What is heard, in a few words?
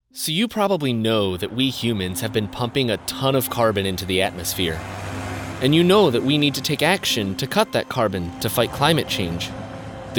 Narration